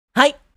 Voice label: Yes anime boy character